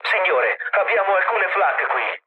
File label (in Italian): damaged aa, mood low, speech it; War Thunder